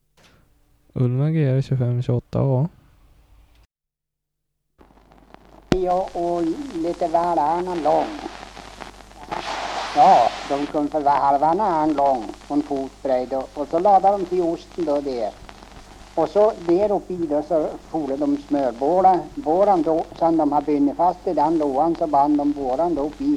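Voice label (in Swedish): Aspås Jämtland, dialekter; Folkminnen; Svenska sagor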